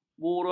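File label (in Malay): "buruan", Malay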